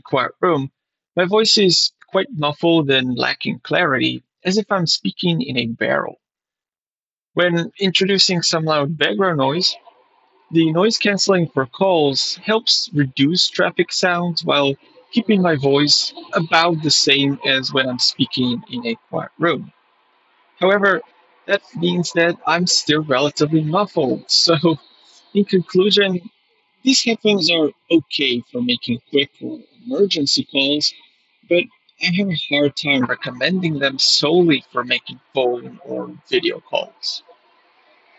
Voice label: TOZO HT2 microphone test; upgraded edition